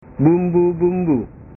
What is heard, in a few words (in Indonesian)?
"bumbu bumbu", bahasa